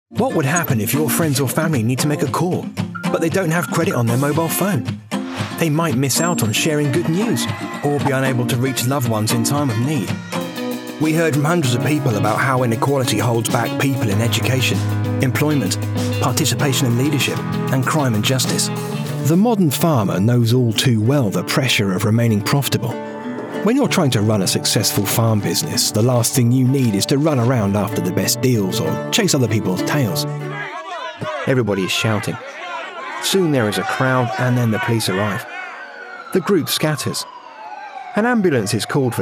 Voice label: Explainer